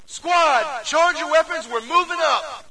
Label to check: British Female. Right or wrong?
Wrong